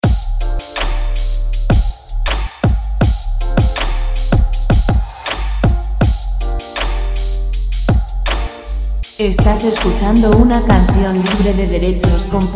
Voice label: instruccion chill

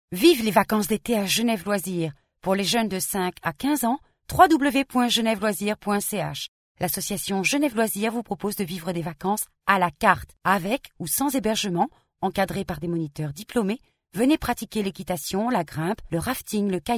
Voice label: locutora nativa francesa

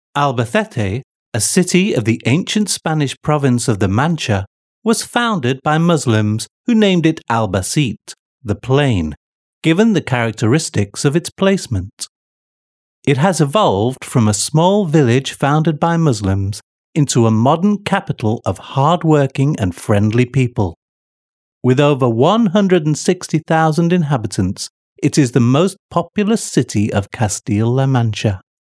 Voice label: locutor british

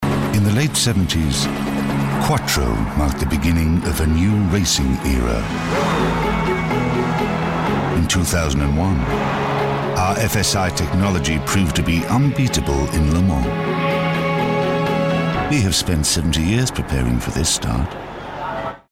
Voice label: locutor ingles

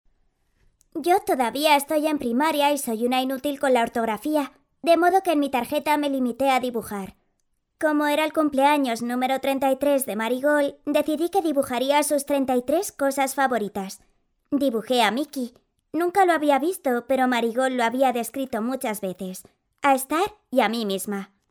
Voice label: locutora voz niña child spanish voiceover

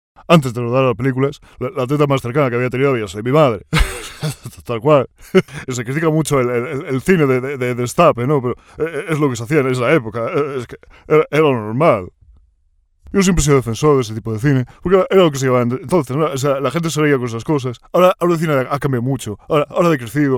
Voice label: imitador pajares locutor; imitación de Andrés Pajares

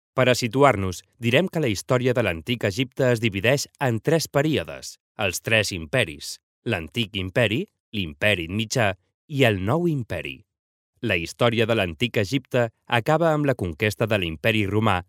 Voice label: locutor catalan voiceover

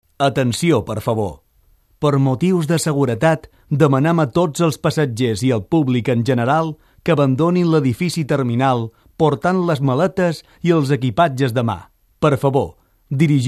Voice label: locutor mallorquin, Locutores

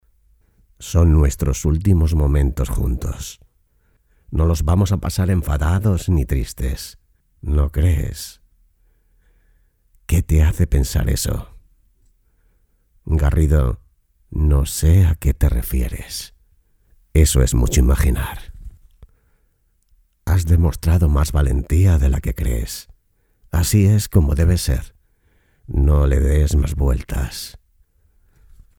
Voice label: locutor spanish voiceover dubbing

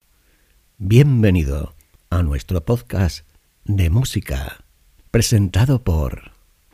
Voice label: podcast musica

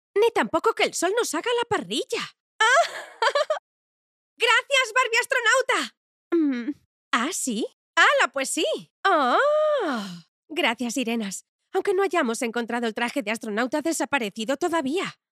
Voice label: locutora doblaje dibujos

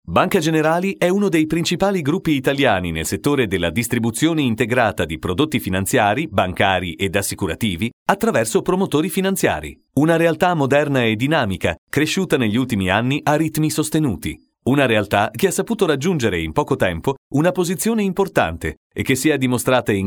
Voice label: locutor italiano

